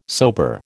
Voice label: "sober", American